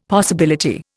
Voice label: "possibility", Indian; Dictionary